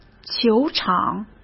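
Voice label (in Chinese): "球场", Mandarin Chinese; hsk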